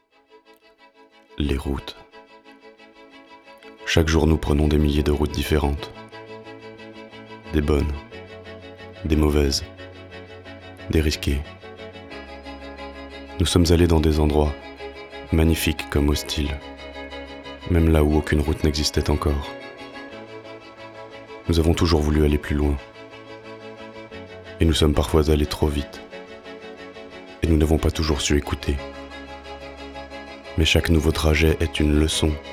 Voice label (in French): Publicité intime; Publicité intime droit UBER